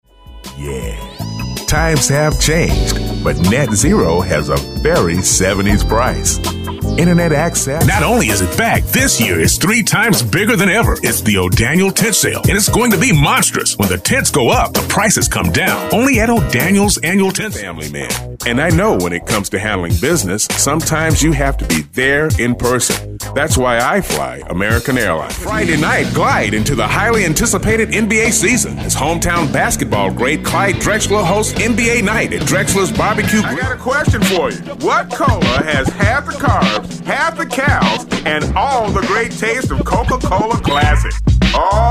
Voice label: Voice Mix, male commercials